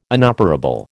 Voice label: "inoperable", American